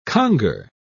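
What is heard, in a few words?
"conger", British English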